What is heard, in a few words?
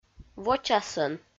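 "вочасӧн", Komi, female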